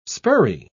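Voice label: "spurrey", English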